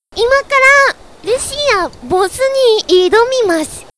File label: chat